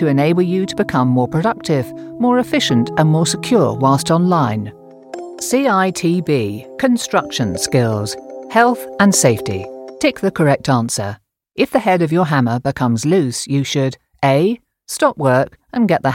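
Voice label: e-learning, Warm Authoritative British Voice; wvstudios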